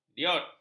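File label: "diod", Malay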